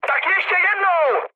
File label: bomb failure, speech cze, mood high; War Thunder